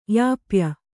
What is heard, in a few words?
"ಯಾಪ್ಯ", Kannada